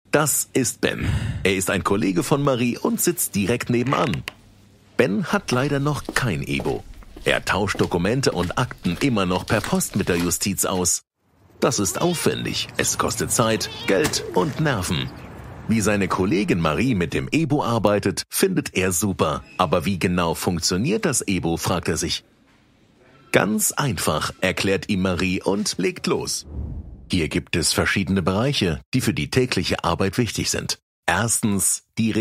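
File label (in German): Sprecher Erklärvideo Tutorial bekannte deutsche Stimme; deutschland oesterreich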